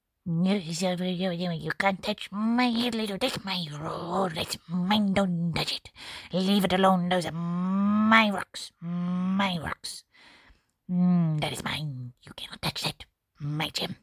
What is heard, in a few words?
Creature